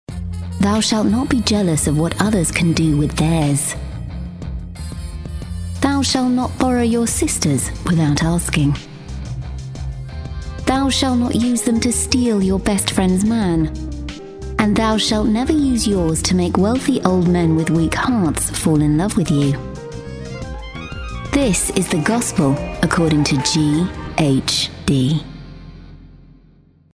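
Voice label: English British, female, commercial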